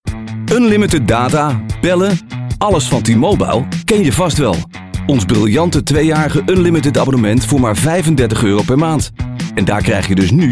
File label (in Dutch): Commercial